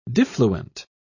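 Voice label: "diffluent", en, British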